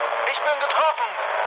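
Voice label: German aircraft damaged mood low, speech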